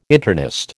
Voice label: "internist", American